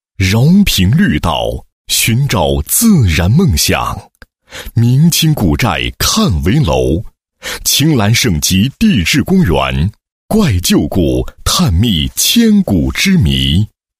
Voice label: 男 旅游 旅游度假区; 绿岛旅游度假区

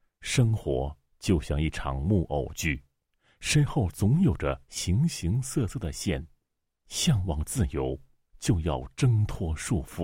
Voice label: 男 广告 旁白风格, 国语男声配音 磁性

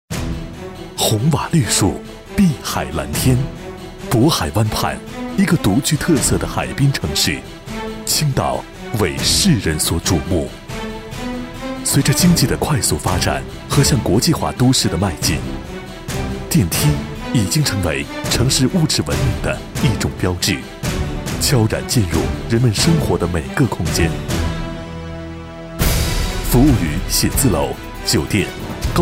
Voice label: 男 品牌广告, 国语男声配音; TOSHIBA东芝青岛片; 交广主播

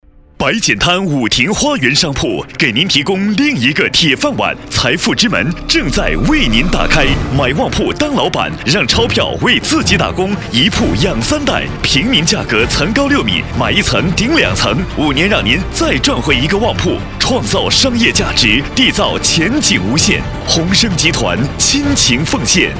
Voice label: 男 稳重大气 商铺

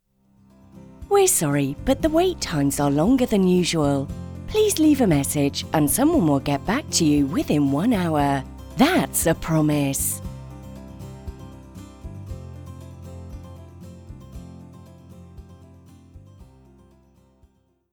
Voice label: 外籍英女, 温柔亲切, 电话留言系统

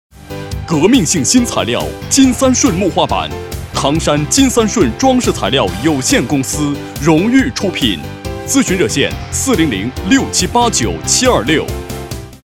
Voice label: 男 广告, 国语男声配音; 金三顺

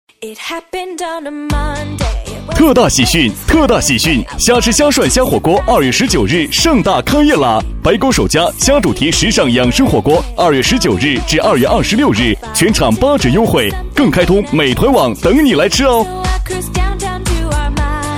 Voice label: 男 促销 喜悦开心; 餐厅开业

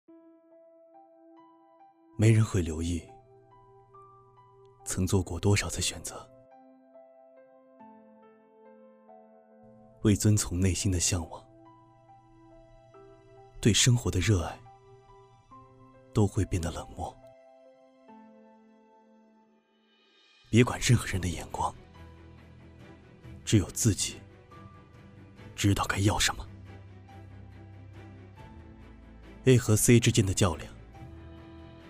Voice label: 独白, 低沉质感

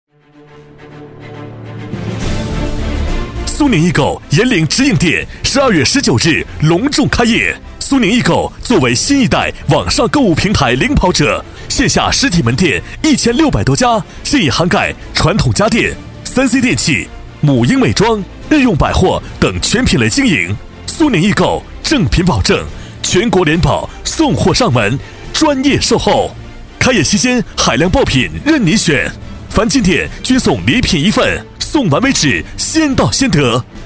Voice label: 男60号 促销; 苏宁易购; 交广主播

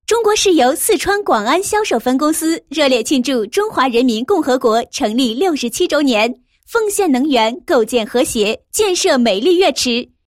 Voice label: 女-国庆; 中国石油四川广安销售分公司

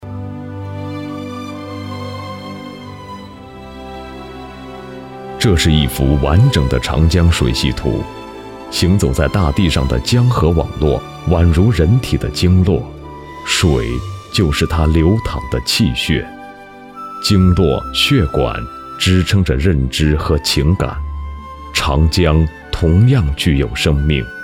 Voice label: 男-记录; 再说长江尾声

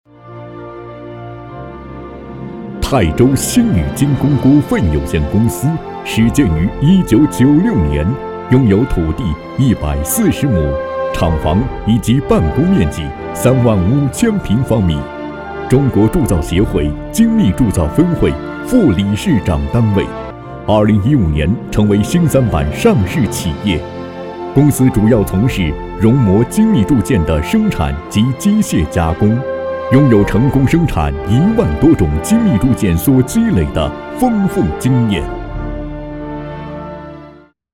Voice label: 企业宣传, 大气激情

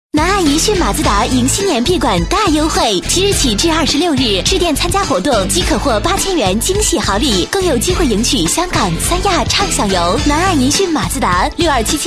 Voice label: 女 广告; 马自达

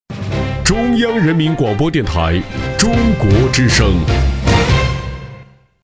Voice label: 电台频宣 大气温暖; 中国之声

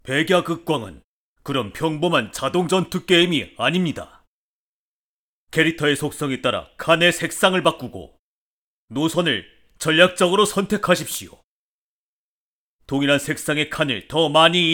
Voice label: 游戏介绍, 低沉严肃

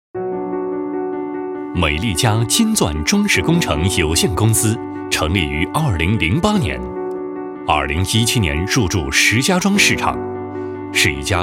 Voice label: 男, 装饰公司, 普通广告

